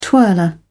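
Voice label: "twirler", English, British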